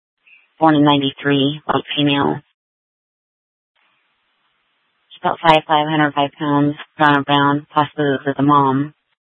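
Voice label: Police Department North Dispatch, scanner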